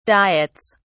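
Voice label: "diets", British English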